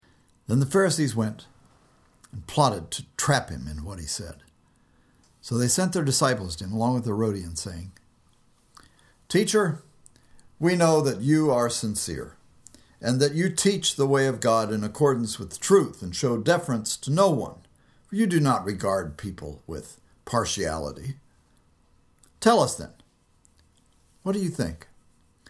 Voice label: storytelling